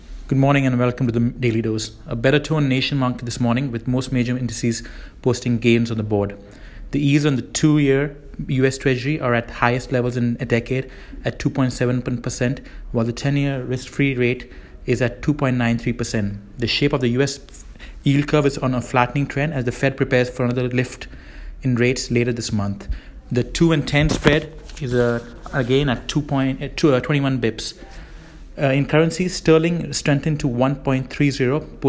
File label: voice note; fixed income